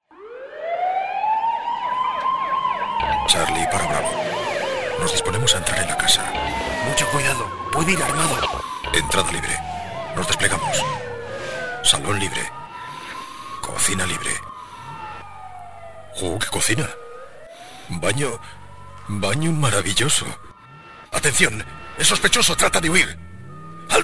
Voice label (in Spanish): spot dramatizado television